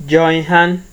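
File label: "join hand", Nigerian Pidgin, male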